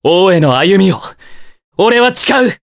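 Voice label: vox Japanese; Kasel; kingsraid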